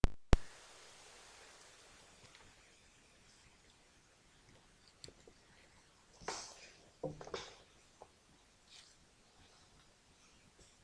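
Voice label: "battery", spoken word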